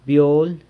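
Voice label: "byol", century plant flower, San Lucas Quiaviní Zapotec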